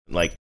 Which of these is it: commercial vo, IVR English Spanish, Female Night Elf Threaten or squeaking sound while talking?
squeaking sound while talking